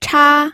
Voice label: "cha1", pinyin

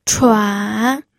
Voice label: "chuan3", pinyin

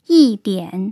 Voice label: "yi1 dian3", tone changes

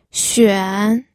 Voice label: "xuan3", pinyin

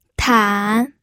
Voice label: "tan3", pinyin

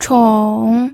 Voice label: "chong3", pinyin

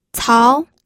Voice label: "cao2", pinyin

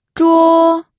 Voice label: "zhuo1", pinyin

